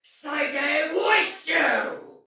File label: Attack Heavy Soldier Female, Battle Barks; Dialog; Sinecure